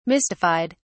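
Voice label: "mystified", British English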